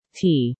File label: "T", female